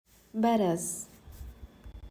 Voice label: "baraz" arabic pronunciation